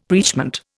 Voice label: "preachment", Indian; Dictionary

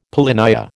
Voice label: "polynya", American

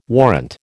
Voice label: "warrant", American

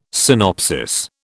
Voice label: "synopsis", British

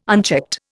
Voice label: "unchecked", Indian

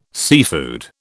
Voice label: "seafood", British

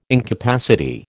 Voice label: "incapacity", American

